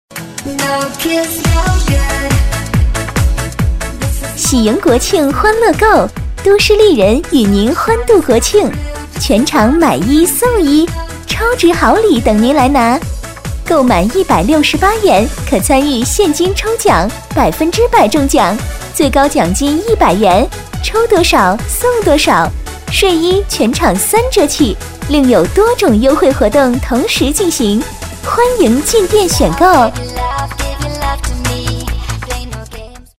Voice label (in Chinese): 广告; 与您欢度国庆; 都市丽人